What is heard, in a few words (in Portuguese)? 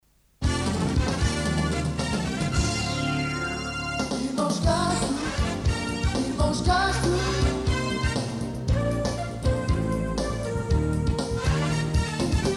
c voz off; Jingle “Irmãos Castro”